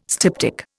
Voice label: "styptic", Indian

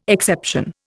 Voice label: "exception", Indian

